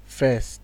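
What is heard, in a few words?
"first", Nigerian Pidgin, male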